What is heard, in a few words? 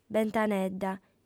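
"bentanedda", lingua sarda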